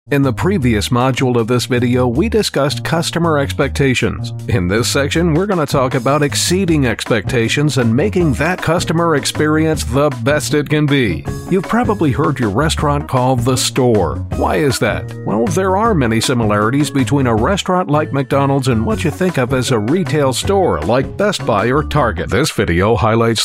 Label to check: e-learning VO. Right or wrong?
Right